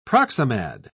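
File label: "proximad", British English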